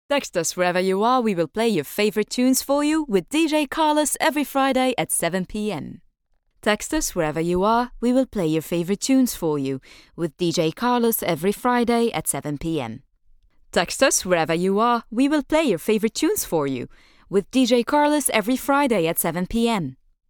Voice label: female english; tv and radio voice over; English Sample; Al Saeida Degla Gardens